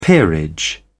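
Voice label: "peerage", English, British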